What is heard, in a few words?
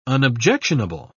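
"unobjectionable", British English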